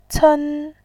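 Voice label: "cen", Mandarin Chinese, tone 1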